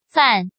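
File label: "Fàn", Chinese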